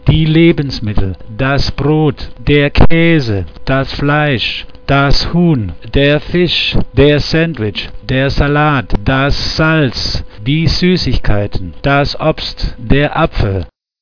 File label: german; food